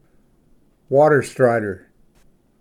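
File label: "water strider", English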